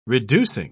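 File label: "reducing", English, British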